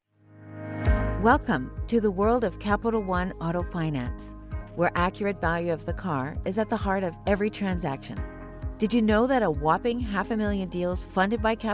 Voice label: English canadian corporate videos